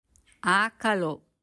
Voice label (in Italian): Dialetto di Mornese